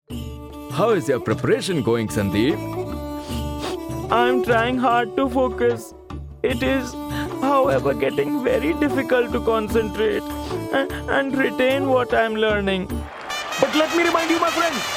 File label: English Animation